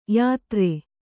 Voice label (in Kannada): "ಯೋತ್ರ", Kannada